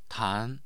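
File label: "tan2", Mandarin Chinese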